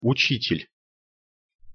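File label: "ucitel", Russian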